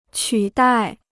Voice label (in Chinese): "取代", Chinese